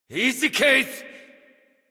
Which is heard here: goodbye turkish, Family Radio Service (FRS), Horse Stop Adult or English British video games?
Horse Stop Adult